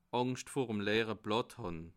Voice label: "angscht", alsace

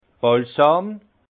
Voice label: "balsam", alsace; vin

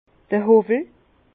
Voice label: "howwel", Bas Rhin; vin; rabot

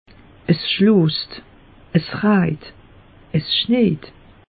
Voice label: "schloost", alsace; meteo

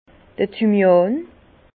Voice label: "thymian", Bas Rhin

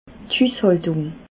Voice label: "hushaltung", alsace

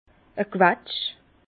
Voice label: "quetsch", Bas Rhin; vin

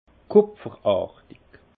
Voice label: "kupferartig", alsace; vin; cuivré

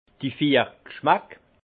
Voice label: "vier gschmack", alsace; les quatres goûts; vin

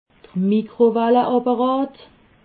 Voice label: "mikrowalleapparat", alsace

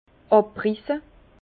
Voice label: "abrisse", Bas Rhin; vin; arracher les sarments

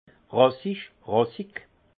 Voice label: "rassich", alsace; vin; racé

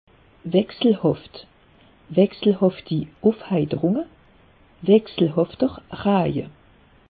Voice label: "wechselhaft", alsace; meteo

